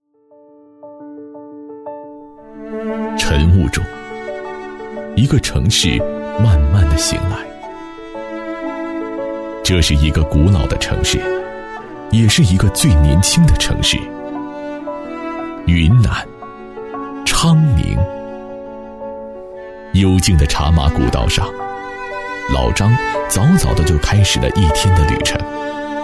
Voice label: 男国 宣传片 城市 讲述; 云南昌宁

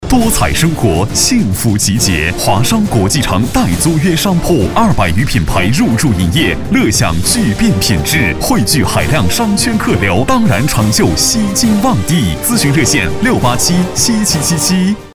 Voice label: 男国 广告 地产 激情; 华商国际城

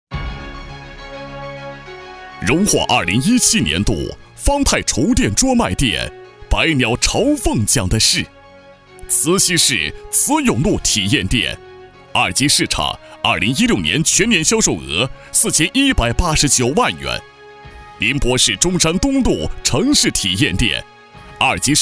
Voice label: 专题 颁奖 厨电 大气, 男; 方太厨电

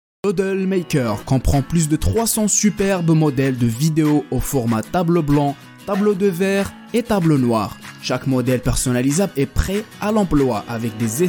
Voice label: 阿拉伯语男 外语 小语种 自然

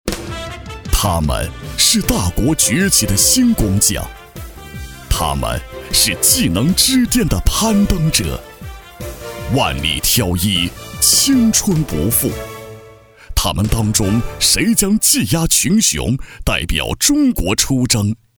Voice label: 沉稳; 广告 片头片花; 广东卫视技能天下

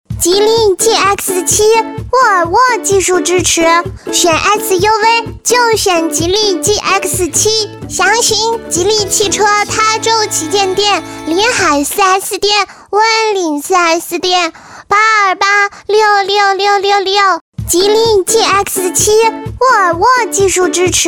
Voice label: 动画 童声 女童, 女国

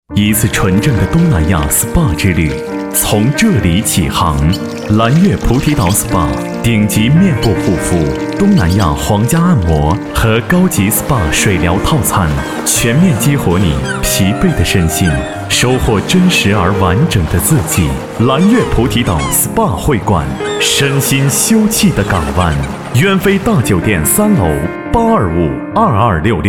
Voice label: 广告, 品牌形象, SPA, 温情

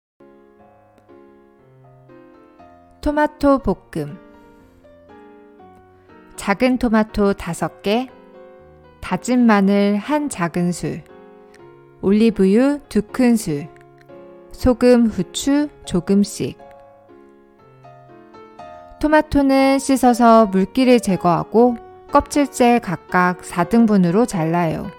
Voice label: 韩语女 外语 小语种 讲述

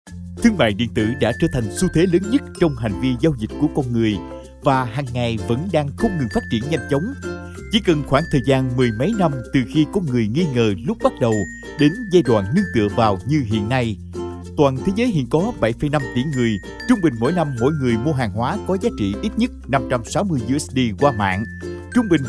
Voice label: 越南语男 外语 小语种

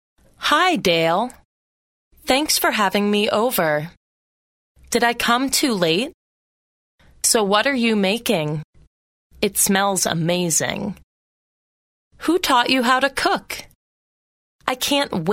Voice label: 外籍女英 外语 美式英语 课件

